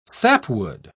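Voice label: "sapwood", British English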